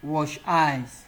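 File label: "wash eyes", Nigerian Pidgin, male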